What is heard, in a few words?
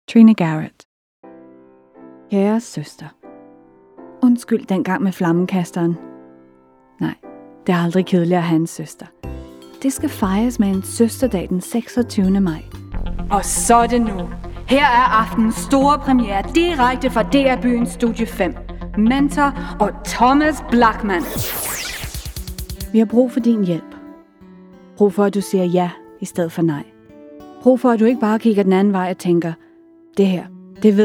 female danish compilation